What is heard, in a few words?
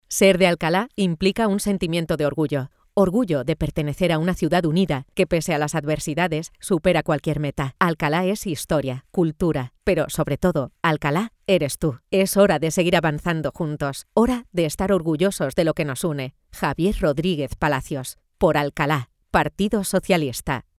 spanish political ads